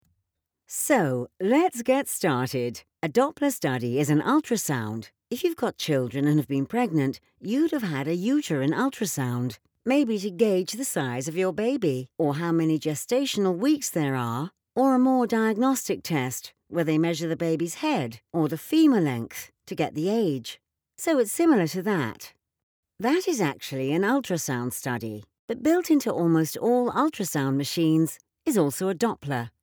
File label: conversational explainer